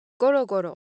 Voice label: Japanese Motion, Ideo Phones

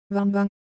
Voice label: Japanese Sound No Pros, Ideo Phones

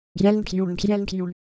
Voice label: Semai, ideophones; Motion

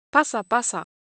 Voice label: Japanese Texture, Ideo Phones

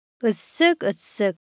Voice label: Korean, Ideo Phones; Motion

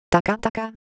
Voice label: Ewe Motion, IdeoPhones

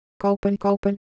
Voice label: Korean, ideophones; Shape

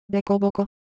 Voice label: Japanese, ideophones; Texture

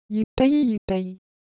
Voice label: Korean, Ideo Phones; Texture

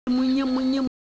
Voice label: Siwu Color Visual

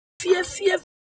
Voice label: Siwu Texture

